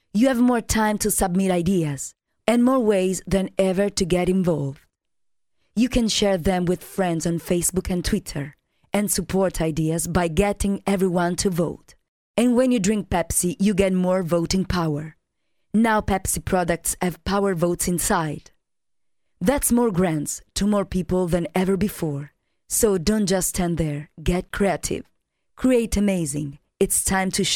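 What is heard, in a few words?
Italian female voice over, English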